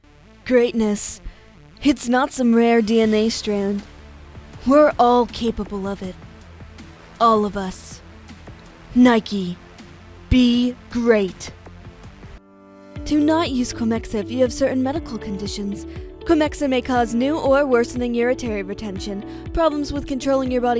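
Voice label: Commercial Sample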